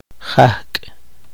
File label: poqomchi